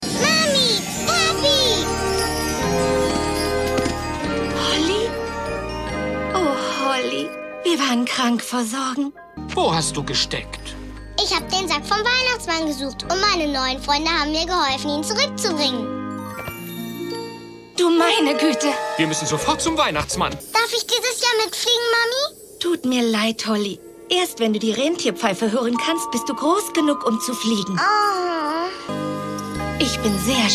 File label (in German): hoerspiel